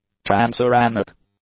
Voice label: "transuranic", English; adjectives

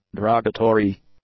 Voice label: "derogatory", english; adjectives

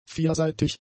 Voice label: "vierseitig", German; adjectives

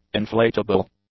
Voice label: "inflatable", English; adjectives

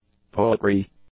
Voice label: "poetry", English; nouns

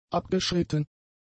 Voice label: "abgeschritten", German; adjectives

